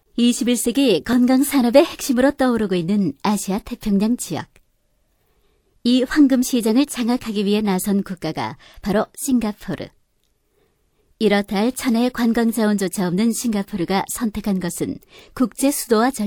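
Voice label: Korean female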